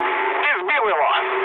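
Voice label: player killed enemy, mood low, Russian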